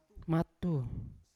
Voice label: "matu", Yawa; unat